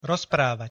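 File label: "rozprávať", Slovak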